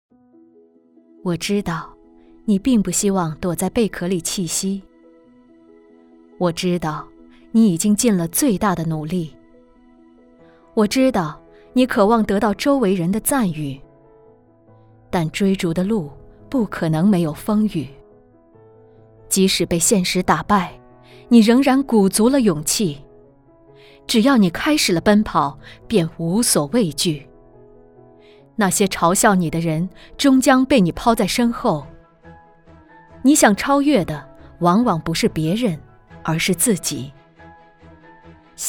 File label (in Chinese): 宣传片; 北仑职高